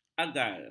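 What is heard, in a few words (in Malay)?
"agal", Malay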